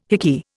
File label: "hickey", Indian